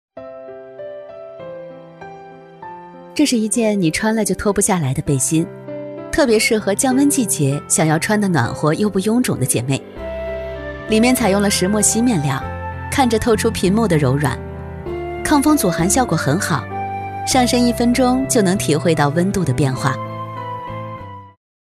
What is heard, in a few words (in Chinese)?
女, 素人广告; 服装